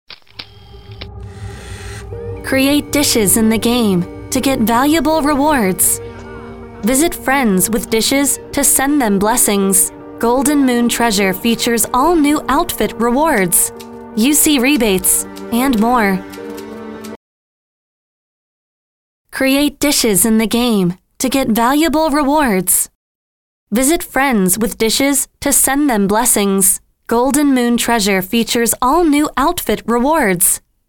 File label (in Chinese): 外籍英女, 旁白